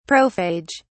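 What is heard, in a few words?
"prophage", British English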